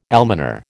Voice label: "almoner", American

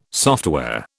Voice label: "software", British

